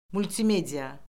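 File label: "multimedia", Azerbaijani; Orfoqrafiya Lüğəti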